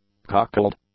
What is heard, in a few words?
"cockled", English; adjectives